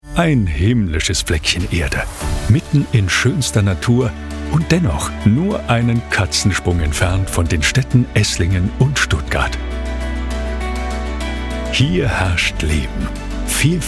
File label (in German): Hoerprobe Imagefilm, Commercial (Werbung), Presentation, Off, German; Gemeinde Aichwald; voice